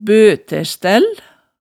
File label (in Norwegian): "bøtæstæll", hemsedalsmaalet tale